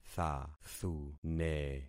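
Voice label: "thathune", concatenated syllables; Affixing experiment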